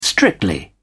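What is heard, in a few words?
"strictly", English, British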